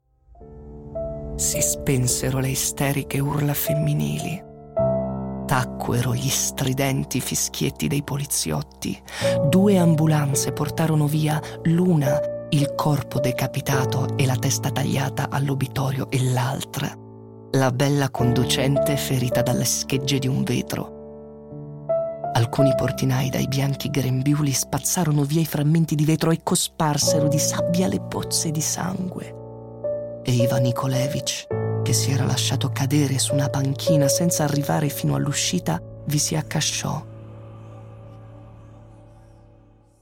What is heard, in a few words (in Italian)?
AudioLibro